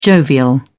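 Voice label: "jovial", British English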